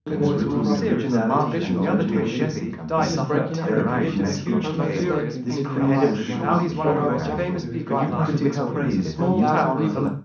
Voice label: male mix; SiSEC11; underdetermined